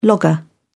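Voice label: "logger", British English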